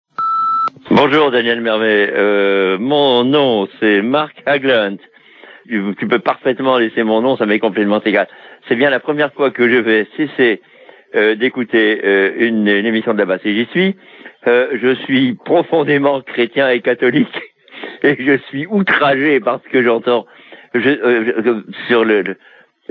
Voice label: Holy Land repondeur

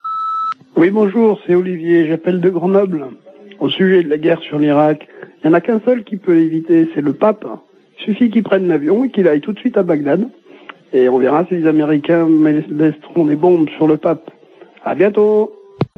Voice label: Irak Pape repondeur

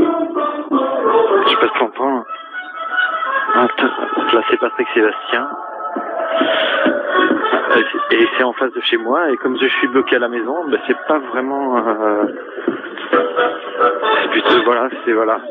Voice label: Fete musique repondeur

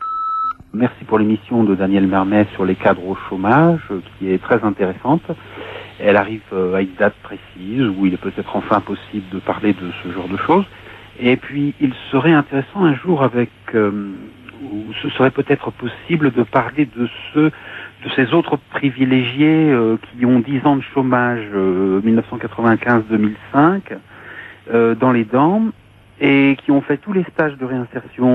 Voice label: repondeur; Cadre jetable

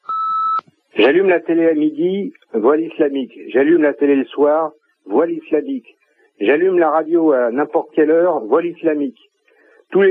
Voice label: répondeur

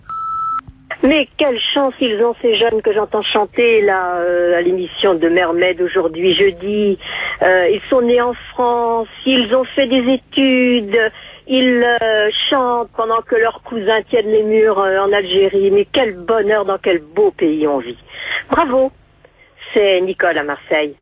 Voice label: repondeur

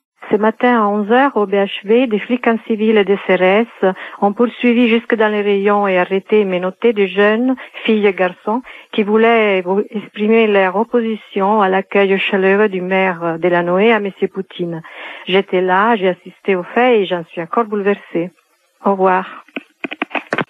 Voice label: Visite Poutine repondeur

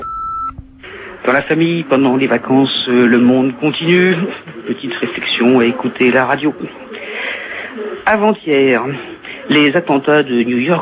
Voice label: repondeur; Attentats de Londres

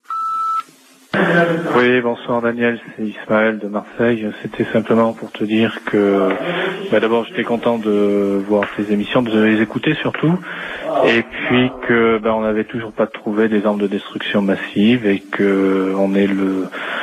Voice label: Irak repondeur

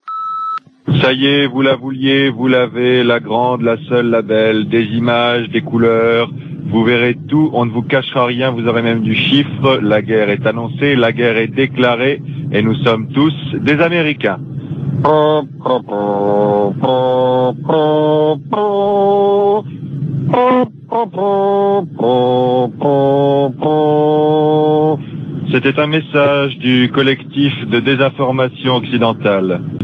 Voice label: Irak intox repondeur

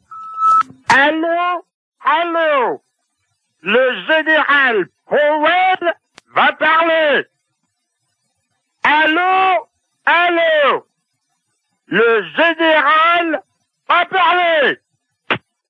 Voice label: Irak repondeur; Powel

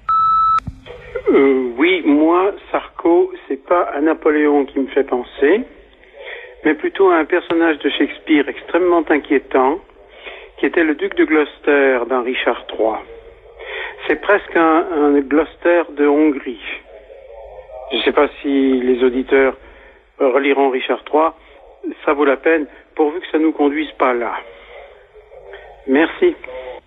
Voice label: repondeur; Sarkosy

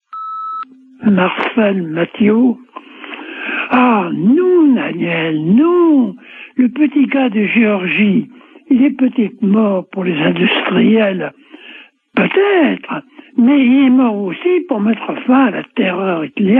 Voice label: Stalingrad repondeur

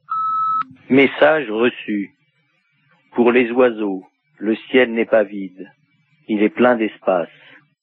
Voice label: Oies sauvages repondeur

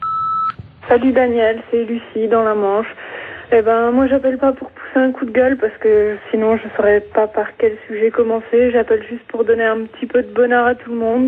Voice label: Bebes phoques repondeur

